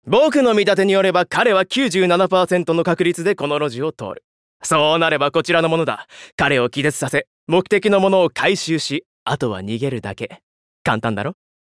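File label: comedian, Japanese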